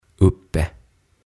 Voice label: "Uppe" Ylhäällä

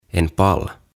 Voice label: "En Pall" "Jakkara"

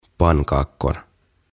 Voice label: "Pannkakor" "Ohukaiset"

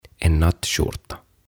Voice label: "En Nattskjorta" Yöpaita

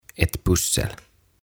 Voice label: "Ett Pussel" Palapeli

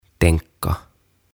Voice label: "Tänka" "Ajatella"

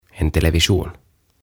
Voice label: "En Television" "Televisio"

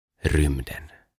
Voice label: "Rymden" Avaruus

